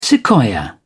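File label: "sequoia", British English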